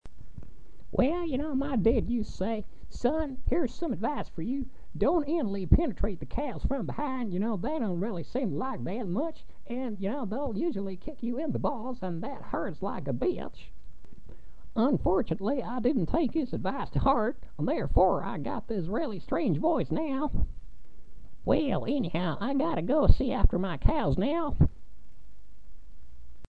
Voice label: cowboy

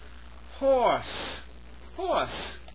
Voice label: "horse", pronounce it

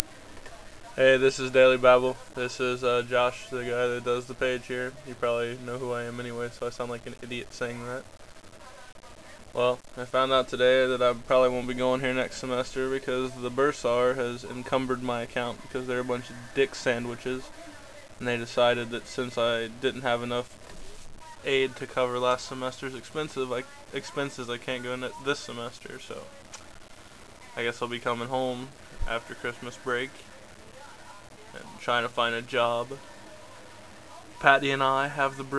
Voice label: babble

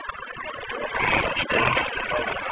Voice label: Overpass Voice Amplified; Sweet Hollow Rd; paranormal; Sweet Hollow Road

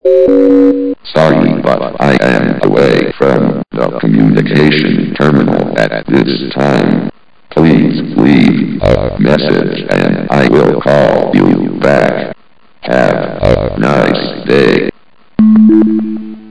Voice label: answering machine; half life

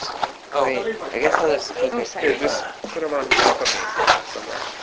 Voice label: man whispering

